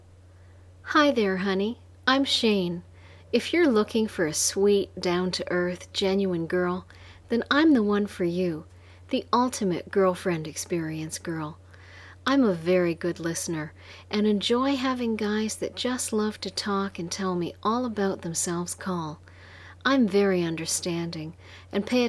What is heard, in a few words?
voice greeting